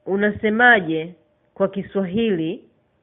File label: how to say swahili